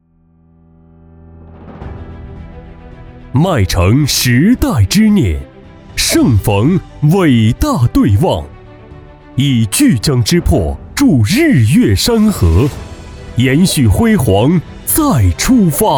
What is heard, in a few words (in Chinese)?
地产广告厚重大气; 中国铁建·花语天著